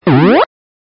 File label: funny voices